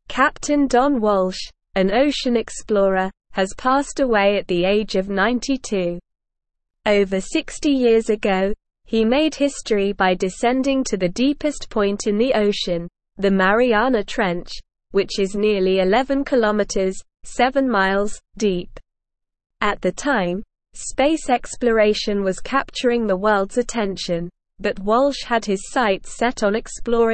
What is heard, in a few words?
English Newsroom Advanced SLOW Reading; Captain Don Walsh Ocean Explorer and Deep Sea Pioneer